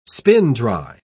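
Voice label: "spin-dry", British English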